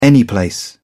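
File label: "anyplace", British English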